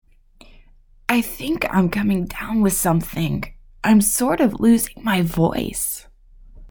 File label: lose voice